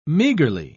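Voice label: "meagrely", British English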